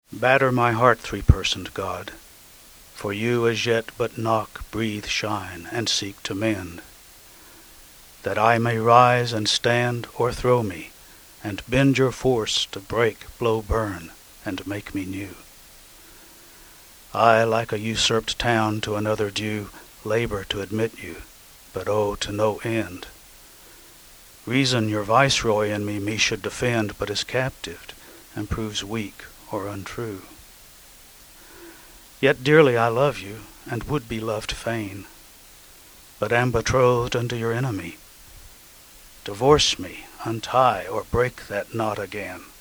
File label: Holy Sonnet 14 Reading; English